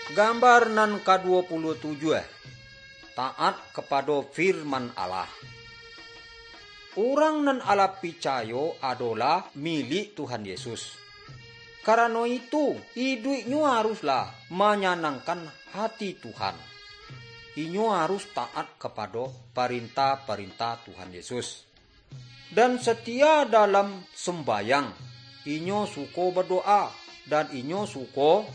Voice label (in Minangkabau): good news minangkabau